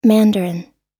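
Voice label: "mandarin", US English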